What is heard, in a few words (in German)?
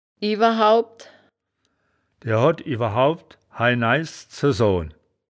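"iewer hoawd", wittlicher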